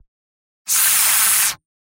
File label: spy jeers; mvm